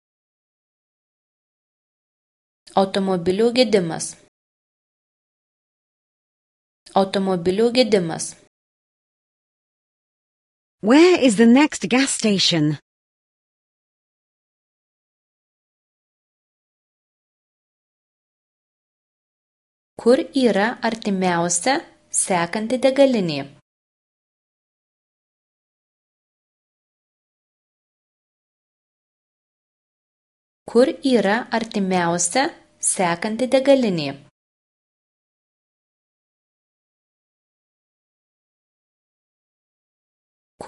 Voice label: Car breakdown, Lithuanian